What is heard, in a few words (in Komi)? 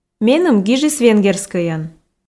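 меным гижис венгерскӧйӧн, Komi, female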